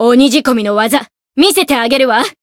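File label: Voice encounter, Japanese; kikyou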